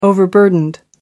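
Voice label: "overburdened", British English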